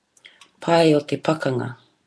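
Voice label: "pae o te pakanga", Māori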